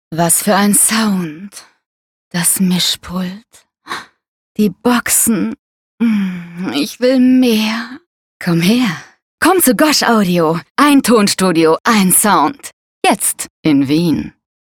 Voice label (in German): W zaertlich